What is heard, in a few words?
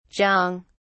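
"jong", British English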